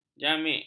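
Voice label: "jamik", Malay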